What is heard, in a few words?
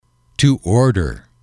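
"to order", English, male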